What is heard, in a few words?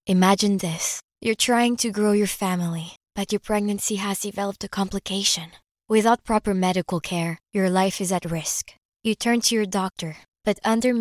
ENGLISH